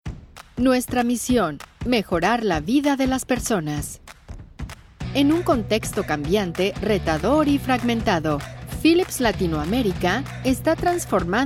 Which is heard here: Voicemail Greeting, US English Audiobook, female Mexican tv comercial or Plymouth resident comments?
female Mexican tv comercial